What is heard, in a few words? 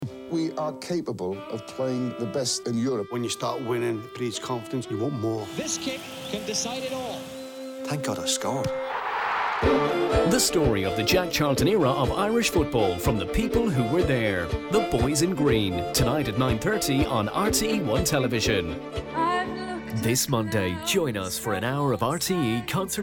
Voiceover Showreel